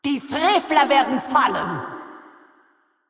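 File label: German, assist; de DE; VO; Kayle; bloom minigame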